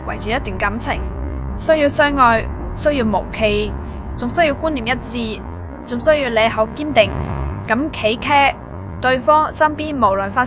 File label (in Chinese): girl